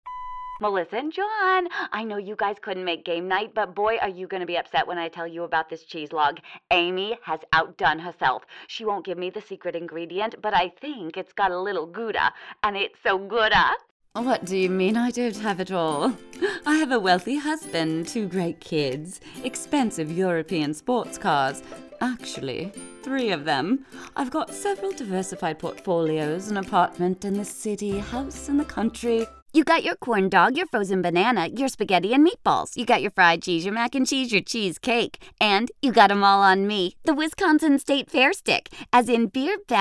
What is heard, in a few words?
Character